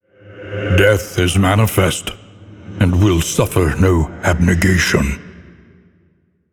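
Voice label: narration, battle death boss spawn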